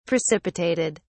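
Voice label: "precipitated", British English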